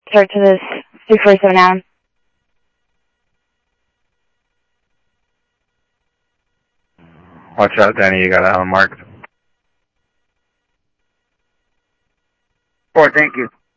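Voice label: Sheriff West County, scanner audio trunk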